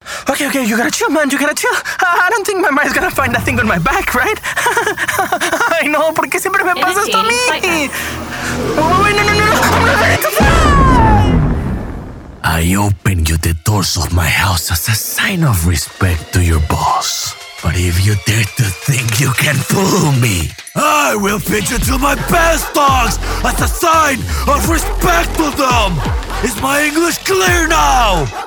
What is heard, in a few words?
voiceover, foreign language; New York; spanish